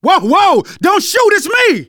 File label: friendly fire, manager, survivor, voice